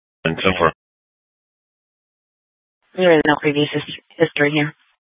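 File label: Police Department North Dispatch, scanner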